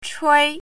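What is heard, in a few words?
"chui1", Mandarin Chinese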